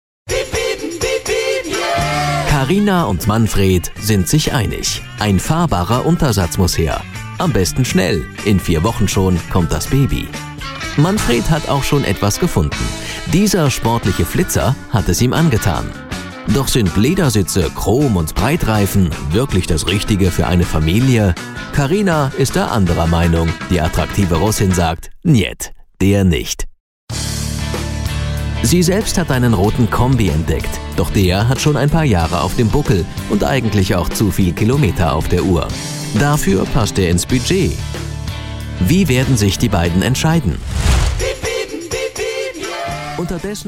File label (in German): Sprecher doku-soap; autokauf